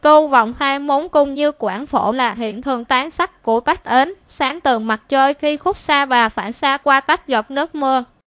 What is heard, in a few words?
speaker, vi VN; piper voices; vivos